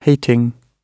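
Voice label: "hating", British English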